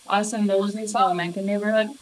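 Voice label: voice acting, es, female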